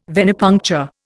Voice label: "venipuncture", Indian